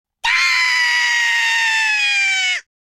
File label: scared, French speech